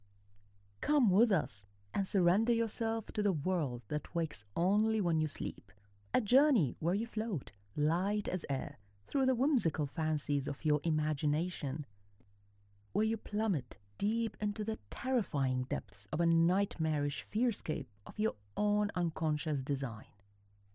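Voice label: Arabic, English Accent; voice demos; GoLocalise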